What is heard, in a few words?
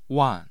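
"wan4", Mandarin Chinese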